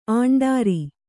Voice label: "ಆಂಡಾರಿ", kannada

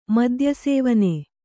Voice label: "ಮದ್ಯಸೇವನೆ", kannada

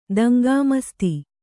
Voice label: "ದಂಗಾಮಸ್ತಿ", Kannada

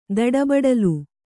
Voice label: "ದಡಬಡಲು", Kannada

